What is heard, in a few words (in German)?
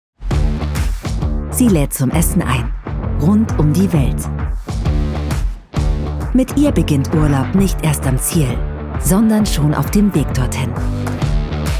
Werbung; American Express